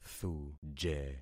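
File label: "thuje", concatenated syllables; Affixing experiment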